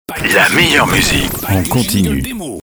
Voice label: la meilleure musique en continu tag

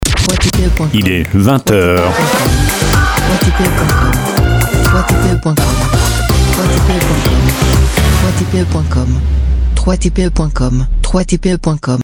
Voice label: top horaire dance voix homme; il es 20 heure

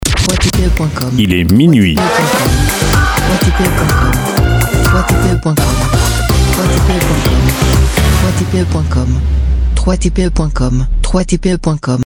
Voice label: il es minuit heure heure top dance, voix homme; top horaire généraliste; voix masculin

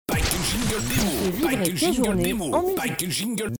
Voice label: On fait vibrer tes journées en musique. voix femme; jingle radio généraliste animateur voix homme et femme

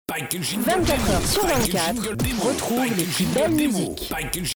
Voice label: 24 heures sur 24, retrouve les plus belles musiques. voix femme, jingle radio animateur

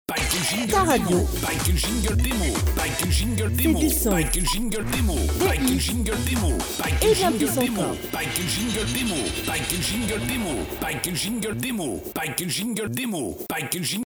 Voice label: ta radio c est du son des hits et bien plus encore, fem, jingles; radios ou webradio

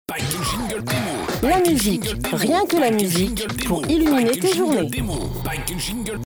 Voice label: La musique, rien que la musique pour illuminer tes journées. voix femme, jingle radio animateur

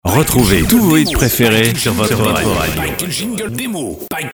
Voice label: Retrouvez tous vos hits préférés votre radio tag

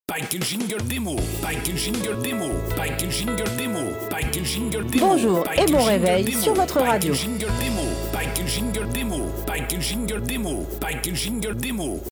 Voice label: Bonjour et bon réveil sur votre radio ! voix femme; jingle radio généraliste animateur voix homme et femme

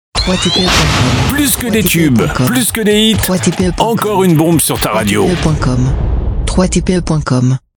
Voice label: Plus que des tubes , plus que des hits , encore une bombe sur ta radio, jingles radio voix homme; pack de 20 jingles radio voix homme

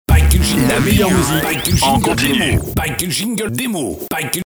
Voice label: la meilleure musique en continu fx tag

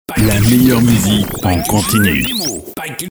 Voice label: la meilleure musique en continu tag

